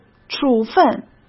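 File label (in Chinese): "处分", Mandarin Chinese; hsk; chinese-flashcards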